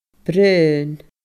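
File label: "braon", Scottish Gaelic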